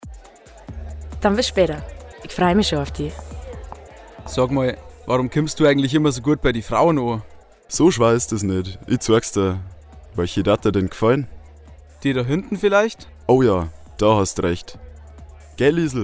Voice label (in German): Werbung; Acrobraeu